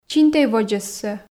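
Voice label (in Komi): "чинтӧй водзӧссӧ", Komi, female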